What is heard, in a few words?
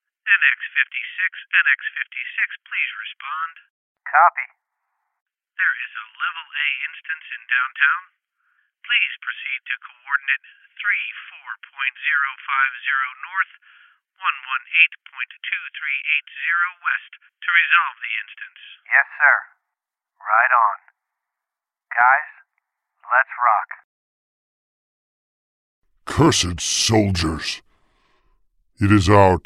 Video Game Voices with audio affect